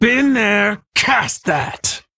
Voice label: Female Worgen Attack